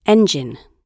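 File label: "engine", British English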